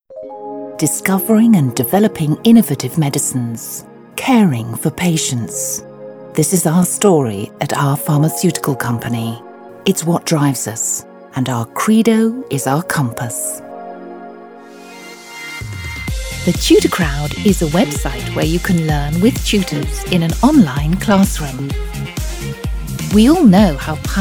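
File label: English, British, female, elearning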